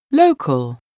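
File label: "local", English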